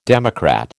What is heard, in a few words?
"democrat", American